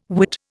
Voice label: "Whit", Indian